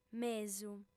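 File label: "mesu", female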